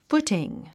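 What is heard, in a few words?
"footing", English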